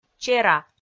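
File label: "чера", Komi, female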